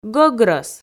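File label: "гӧгрӧс", Komi, female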